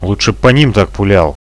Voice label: friendly fire, Russian